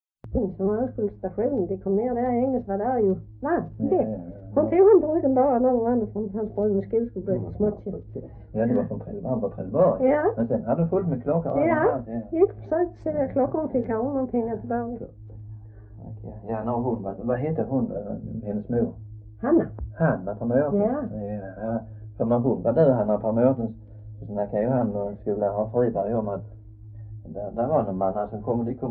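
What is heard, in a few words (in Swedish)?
Röddinge Skåne, Svenska sagor dialekter; Folkminnen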